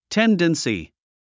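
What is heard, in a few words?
"tendency", English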